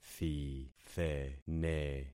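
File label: "thithene", concatenated syllables; Affixing experiment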